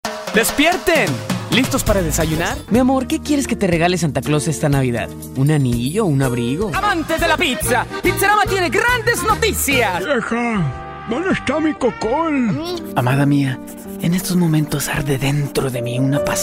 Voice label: spanish

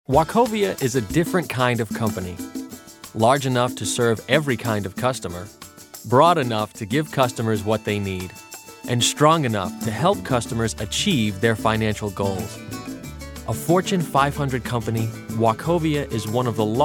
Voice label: narr, male voice talent

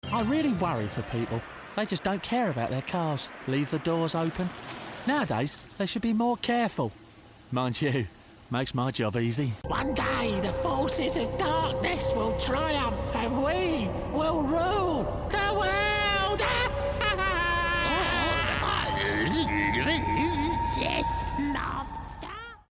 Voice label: british; foreign languages

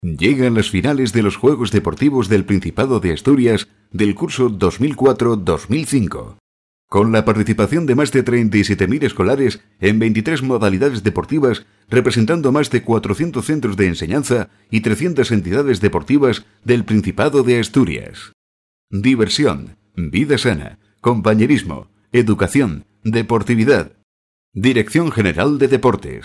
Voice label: Castilian; foreign languages

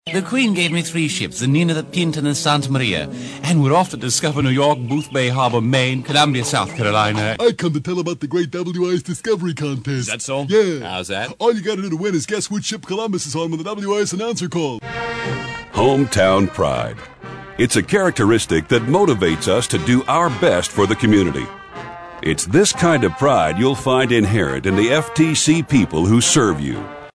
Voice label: char, male voice talent

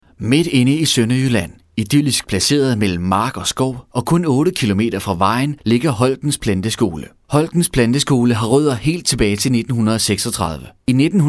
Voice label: danish

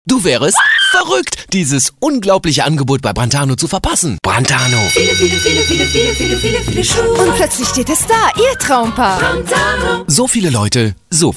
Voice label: german, German voice overs, Male

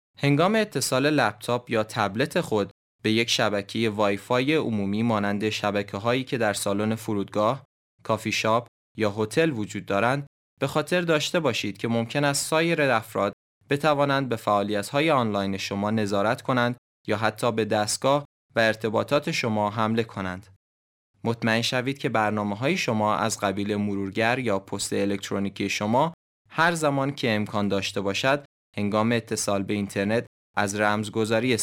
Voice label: Farsi; foreign languages

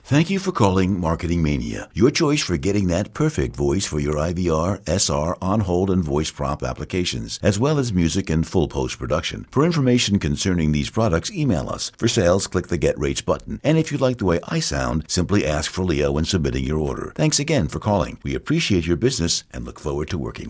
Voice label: On Hold, male voice talent

